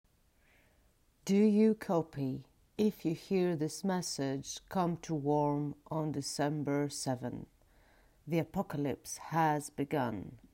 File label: Emergency eng